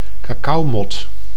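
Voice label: "cacaomot", Dutch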